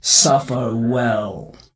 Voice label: NPC Death Knight Female Low Farewell